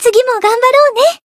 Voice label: Voice win, jp

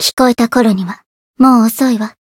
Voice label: limitbreak, jp

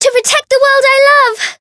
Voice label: Voice variable chant

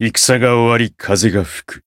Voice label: win, jp

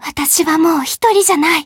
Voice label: Voice, jp

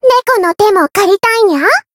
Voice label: voice jp; nekoko

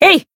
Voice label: Voice attack jp

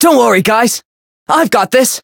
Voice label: Voice variable chant

